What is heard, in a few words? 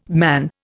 "man", Indian